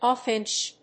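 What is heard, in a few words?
"Hawfinch", English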